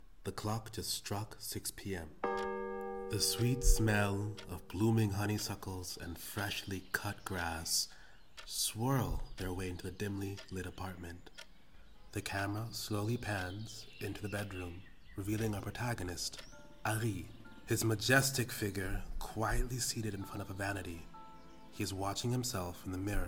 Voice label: English Audio

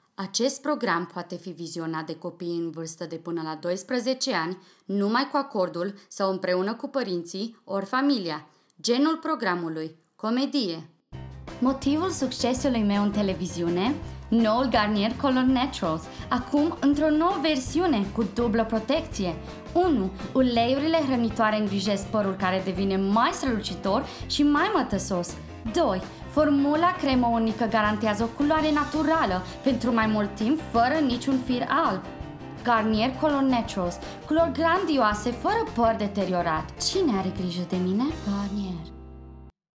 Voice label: Romanian voice

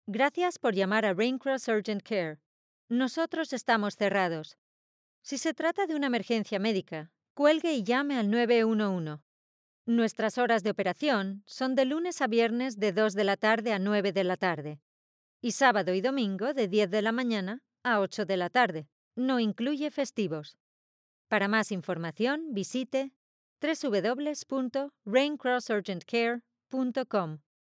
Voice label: Urgent Care Spanish

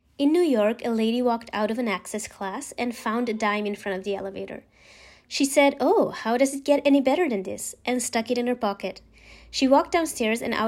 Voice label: English

